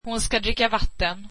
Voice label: swedish she will drink water